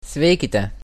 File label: get well soon, lithuanian